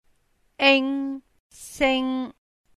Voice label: ing sing1, cantonese